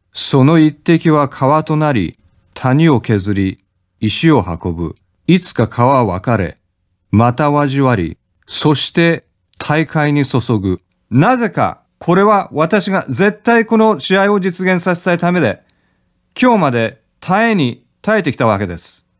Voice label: Japanese, Male